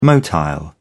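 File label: "motile", British English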